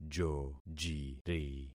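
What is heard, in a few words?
"jojiri", concatenated syllables; Affixing experiment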